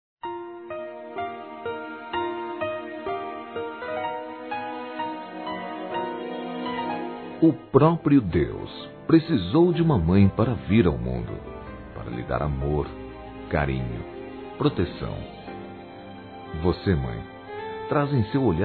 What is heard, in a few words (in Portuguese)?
MÃE RELIGIOSA OU EVANG., Masc; Dia das Mães; Telemensagens 2017